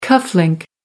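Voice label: "cuff link", British English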